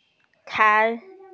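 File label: "chai2", Mandarin Chinese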